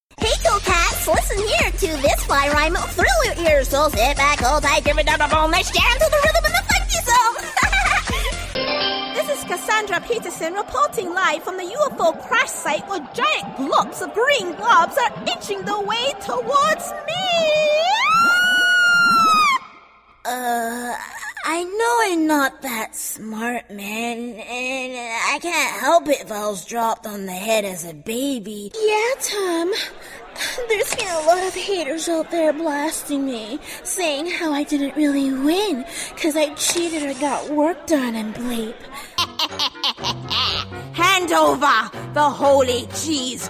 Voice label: animation